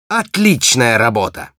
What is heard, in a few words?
good job, ru; "Отличная работа!"